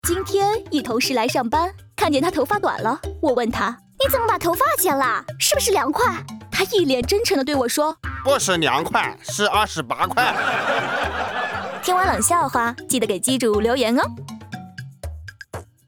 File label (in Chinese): 彩铃 特色版